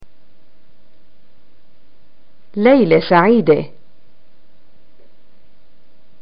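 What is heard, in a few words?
"greeting goodnight", Palestinian Arabic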